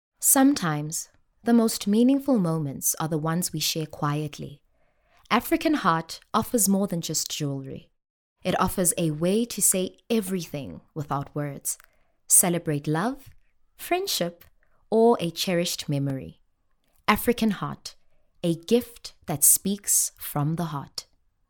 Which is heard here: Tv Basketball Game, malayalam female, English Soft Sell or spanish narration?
English Soft Sell